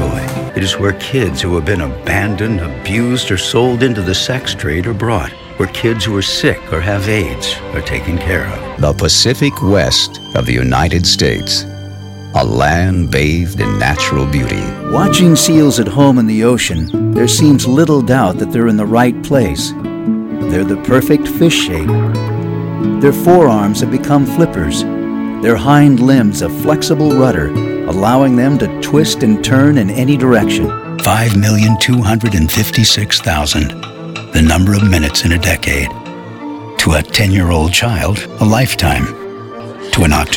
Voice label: Documentary Voice Over